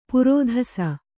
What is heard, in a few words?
"ಪರದೇಸಿ", Kannada